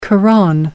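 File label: "quran", English, uk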